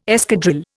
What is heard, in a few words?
"escadrille", Indian